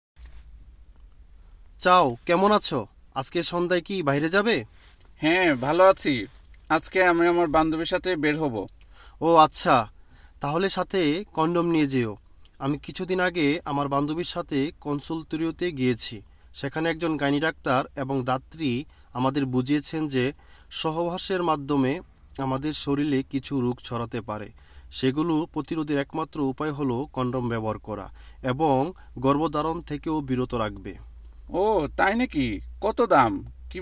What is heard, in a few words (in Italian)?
Bangla uomini